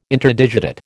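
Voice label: "Interdigitate", American English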